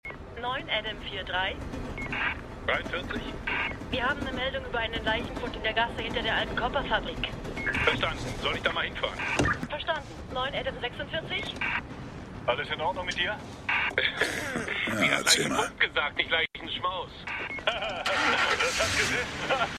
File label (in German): Funkstimmen; The Wire